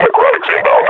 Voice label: announce enemy zombie, combine grunt